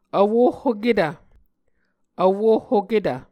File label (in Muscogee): "vwohhoketv", Muscogee; Mvskoke Words with Repeated Consonants; Martin, Jack B., and Margaret McKane Mauldin. 2023. A Dictionary of Creek/Muskogee